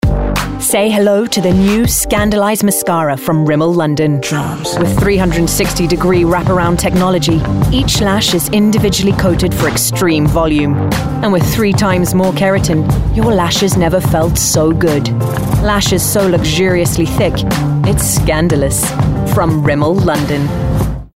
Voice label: Commercial; Rimmel